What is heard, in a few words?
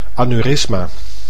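"aneurysma", Dutch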